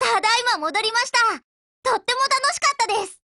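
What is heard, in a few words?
OPERATION OVER Japanese, Voice; GF Alarm; BrowningHP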